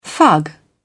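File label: "fug", British English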